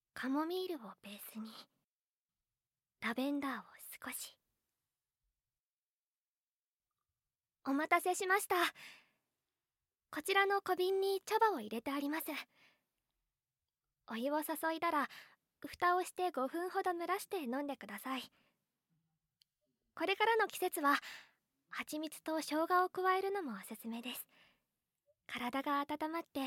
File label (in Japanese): セリフ 調香師の少女